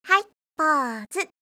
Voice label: haipouse, kakegoe